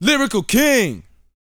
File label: BOAST F; Vocal Planet; R&B Dance